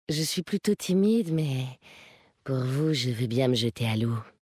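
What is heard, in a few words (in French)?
French Femme Flirt; Timide; Kultirassien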